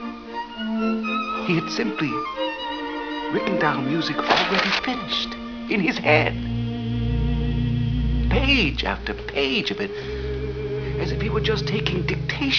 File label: dictation